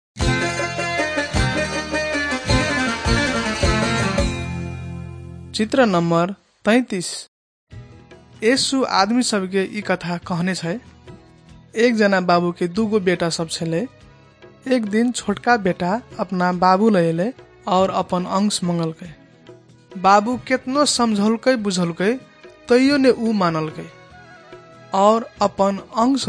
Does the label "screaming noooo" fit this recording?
No